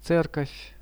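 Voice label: "church", Russian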